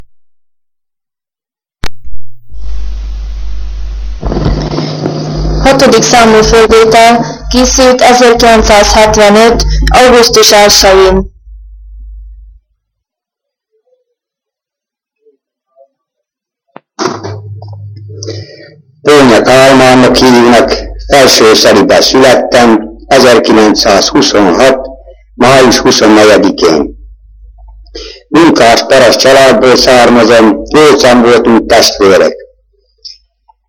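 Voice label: dialect collection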